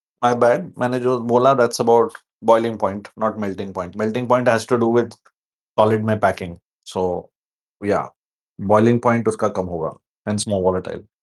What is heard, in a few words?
voice message